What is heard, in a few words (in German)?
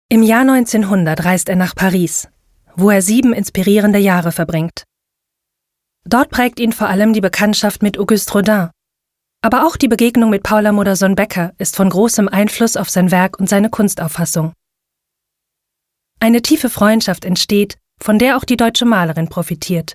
Audioguide; Bernhard Hoetger